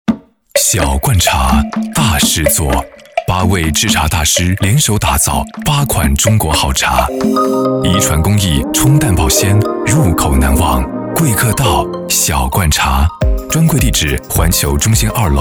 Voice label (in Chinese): 高端男温暖低沉; 小罐茶